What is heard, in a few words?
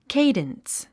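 "cadence", English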